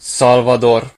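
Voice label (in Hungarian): "salvador", Hungarian; gcompris; geography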